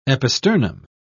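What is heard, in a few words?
"episternum", British English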